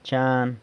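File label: "chan", San Lucas Quiavini Zapotec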